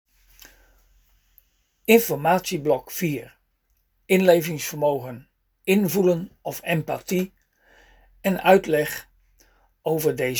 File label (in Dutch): spraak instructie